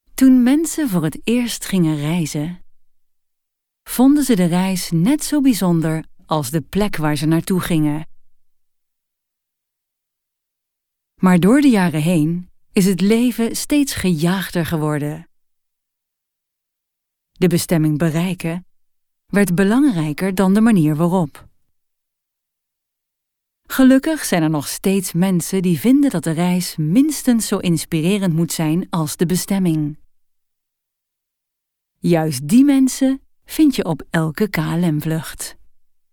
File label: Dutch Corporate